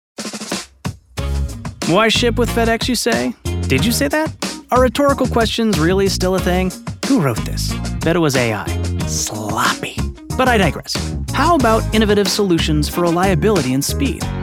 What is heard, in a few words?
Corporate